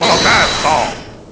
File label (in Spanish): "Protesto", Spanish